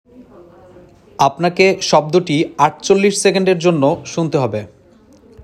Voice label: male sound given